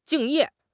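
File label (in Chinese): "敬业", Mandarin Chinese; HSK6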